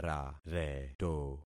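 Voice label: "rareto", concatenated syllables; Affixing experiment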